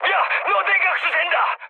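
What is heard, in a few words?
Korean damaged water mood high, speech; War Thunder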